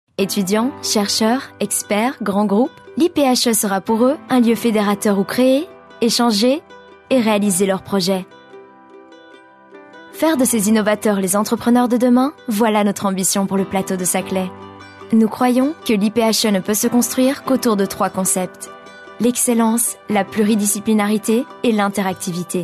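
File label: French, female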